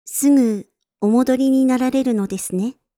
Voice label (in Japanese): すぐ、お戻りになられるのですね, システムボイス; Windows等向けシステムボイス